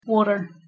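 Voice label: "wodr", Proto-Indo-European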